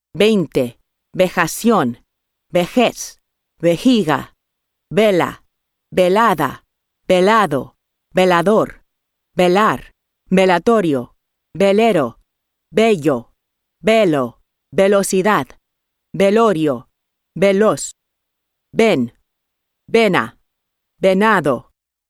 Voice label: Latin American Spanish Dictionary; Merriam-Webster